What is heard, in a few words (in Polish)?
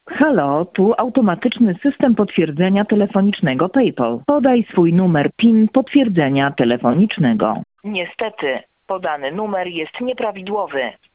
Automatyczny system potwierdzenia telefonicznego; Paypal